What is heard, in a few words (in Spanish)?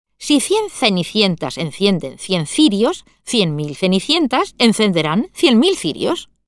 trabalenguas c z española